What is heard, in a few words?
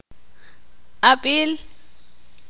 ixil